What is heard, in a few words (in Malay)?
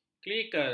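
"klinikal", Malay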